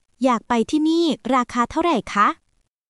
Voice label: Thai, basic thai phrases